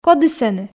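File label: "коді сэні", Komi, female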